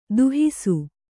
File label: "ದಾಹಿಸು", kannada